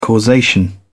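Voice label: "causation", British English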